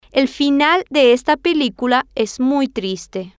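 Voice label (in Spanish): el Final De Esta Pelicula Es Muy Triste, Spanish, female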